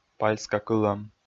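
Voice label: "пальскакылӧм", Komi, male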